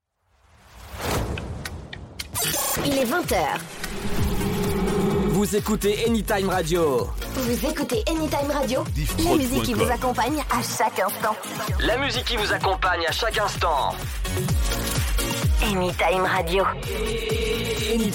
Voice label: Radio Top H; Anytime